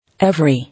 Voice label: "Every", English

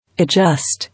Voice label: "Adjust", English

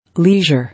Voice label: "Leisure", English

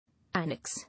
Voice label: "Annex", English

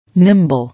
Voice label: "Nimble", English

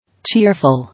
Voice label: "Cheerful", English

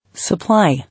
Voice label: "Supply", English

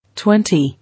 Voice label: "Twenty", English

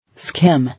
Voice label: "Skim", English

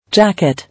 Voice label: "Jacket", English

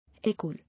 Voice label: "Air-cool", English

